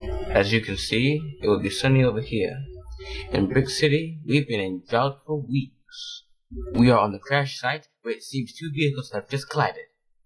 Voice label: weatherman reporter anchor and announcer testlines